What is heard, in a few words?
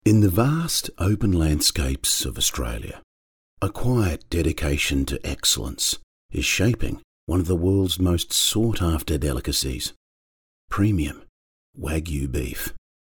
Sophisticated Explainer